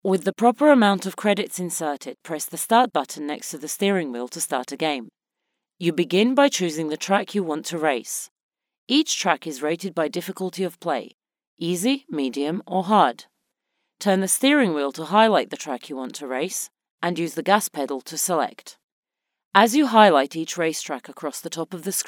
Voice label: game instructions, english GB